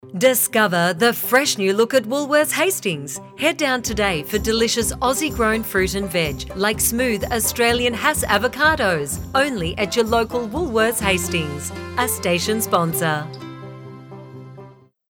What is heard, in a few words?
Radio; Woolworths Hastings